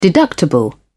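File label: "deductible", British English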